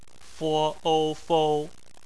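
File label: "fou" bopomofo, Chinese; phonetics; bpmf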